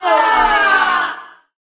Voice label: Inmate Fem Heckle